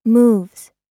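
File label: "moves", American English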